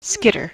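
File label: "skitter", US English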